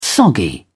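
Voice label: "soggy", British English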